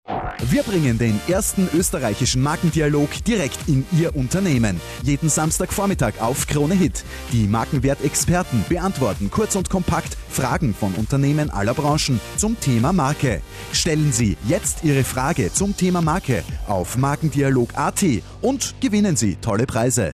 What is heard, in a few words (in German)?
Longspots Radio; Markendialog 2015